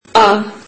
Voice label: turkish alphabet a